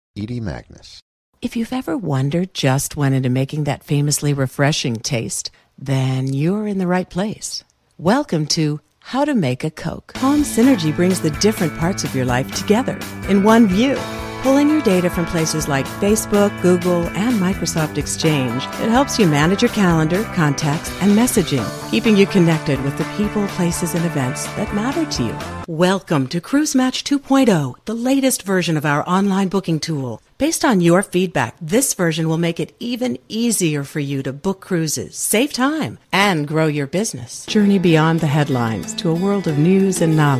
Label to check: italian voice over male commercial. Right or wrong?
Wrong